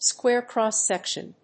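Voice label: "square cross section", English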